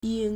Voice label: "ying3", Chinese